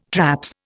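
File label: "traps", Indian English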